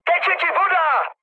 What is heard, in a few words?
damaged water, speech cze, mood high; War Thunder